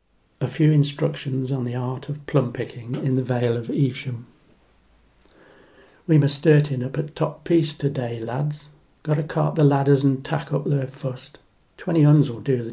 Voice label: Poem; Art of Plum-Picking